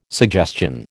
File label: "suggestion", American